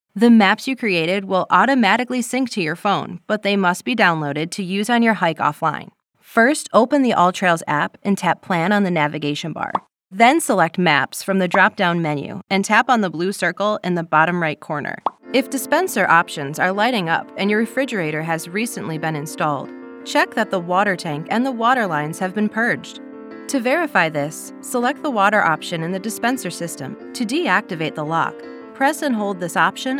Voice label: eLearning Female

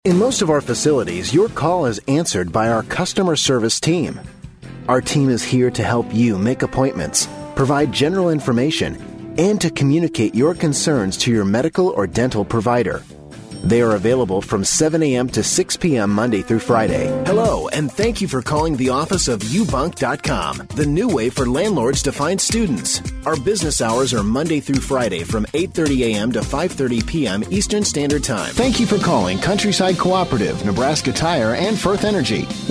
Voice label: phone, phone hold ivr

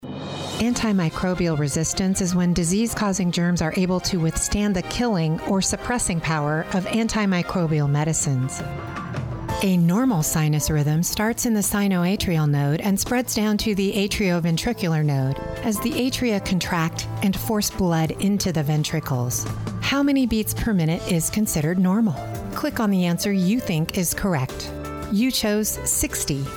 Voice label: Narration Medical Female, Medical Narration

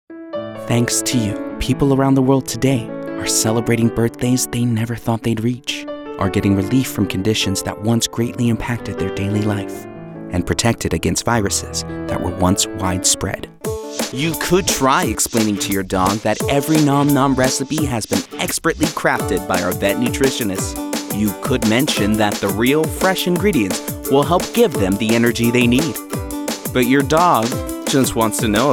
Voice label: Commercial English; Commercial Voiceover, TV and Radio, Narration, Corporate

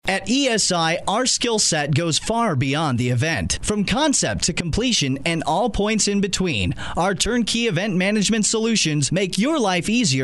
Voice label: Narration Male

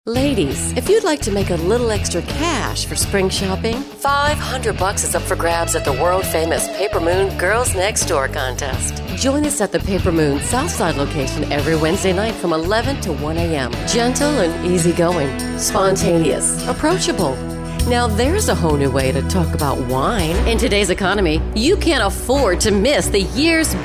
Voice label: Commercial Female

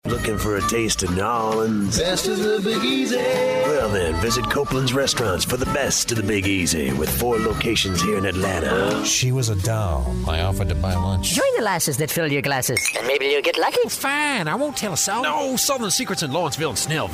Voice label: Characters Male, commercial voiceover

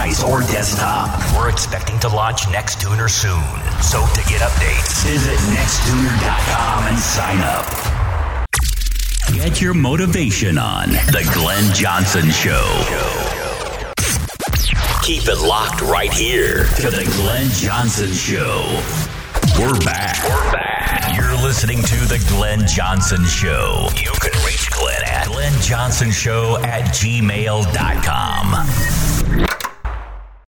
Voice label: Radio Imaging Male; commercial voiceover

